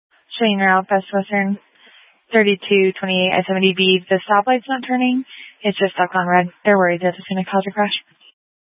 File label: Mesa County Sheriff Dispatch; scanner